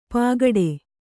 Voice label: "ಪಾಗಡೆ", Kannada